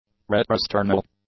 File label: "retrosternal", English; adjectives